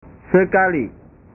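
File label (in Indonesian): "sekali", Indonesian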